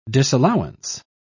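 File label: "disallowance", British English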